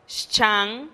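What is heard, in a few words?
"xchan", Teotitlán del Valle Zapotec; saludo de mano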